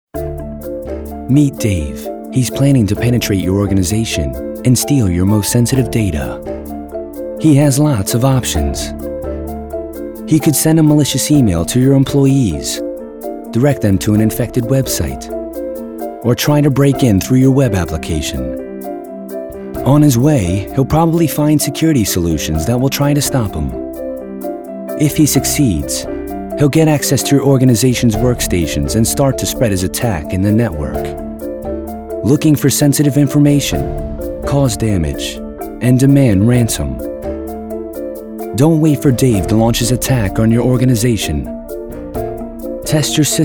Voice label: NARRATIVE